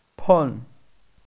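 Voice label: "beng4" Chinese, female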